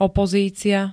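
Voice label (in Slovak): "opozícia", Slovak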